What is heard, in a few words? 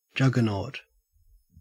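"juggernaut", Australian English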